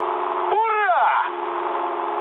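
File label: player killed enemy, mood low, Russian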